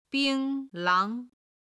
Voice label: "bing1 lang2", Chinese